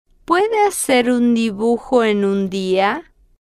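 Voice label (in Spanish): puede hacer un dibujo en un dia, Spanish, female, slow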